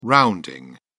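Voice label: "rounding", British English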